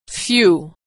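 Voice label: "few", American English; vowel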